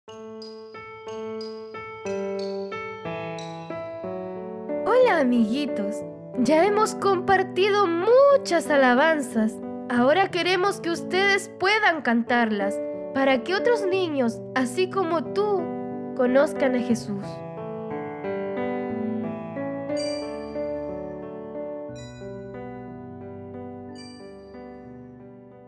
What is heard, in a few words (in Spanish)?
Locucion, Pistas